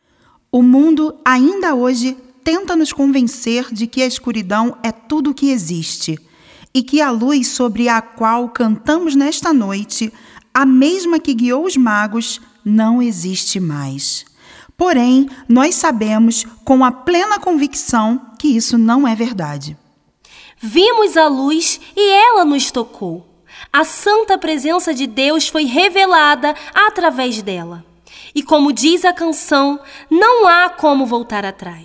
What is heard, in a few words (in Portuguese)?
Narracao; Nossa Razao de Viver